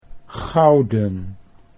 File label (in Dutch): "gouden", Dutch